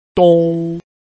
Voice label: "dong1", Chinese